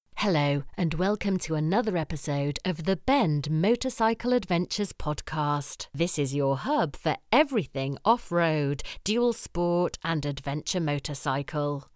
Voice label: english british automotive